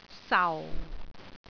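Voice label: "sau4", Cantonese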